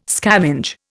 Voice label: "scavenge", Indian